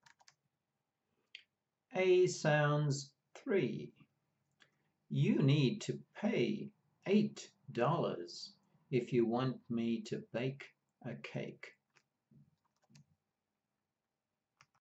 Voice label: Ei sounds, pronunciation of australian english